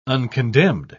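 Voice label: "uncondemned", British English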